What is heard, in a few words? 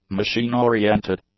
"machine-oriented", English; adjectives